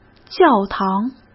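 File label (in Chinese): "教堂", Mandarin Chinese; hsk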